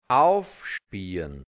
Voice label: "aufspiarrn", hianzisch; aufsperren; öffnen

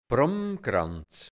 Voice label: "Brummkraunz", Burgenland Dialekt hianzisch; Brunnenkranz

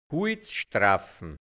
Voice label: "Huizstraffn", Hianzisch Mundart; s Holz streifen

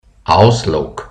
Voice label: "Auslog", hianzisch Mundart Burgenland; Auslage; Schaufenster

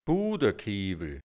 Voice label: "Budakibl", hianzisch Dialekt Burgenland; Butterkübel

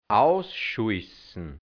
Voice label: "ausschuissn", hianzisch, Dialekt, Burgenland; ausbleichen

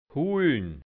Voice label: "huln", Hianzisch Mundart; holen

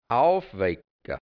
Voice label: "aufwe(i)cka", hianzisch Mundart, Burgenland; aufwecken

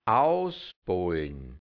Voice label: "ausbolln", hianzisch Mundart, Burgenland; ausdrücken; auswringen

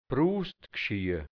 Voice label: "Brustgschia", Burgenland Dialekt hianzisch

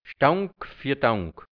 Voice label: "Stank fia Dank", Hianzisch, Mundart, Burgenland; Sta(u)nk

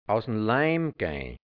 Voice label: "aus n Leim geih(n)", hianzisch Mundart, Burgenland; aus den Fugen geraten; entzwei gehen

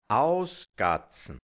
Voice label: "ausgatzn", hianzisch Mundart, Burgenland; ausschlagen; mit dem Fuß ausschlagen

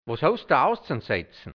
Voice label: "wos houst da auszanse(i)tzn", hianzisch, Dialekt, Burgenland; ausse(i)tzn

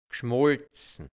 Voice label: "gschmolzn", Mundart, Burgenland, hianzisch; geschmalzen; ausgiebig; überhöht

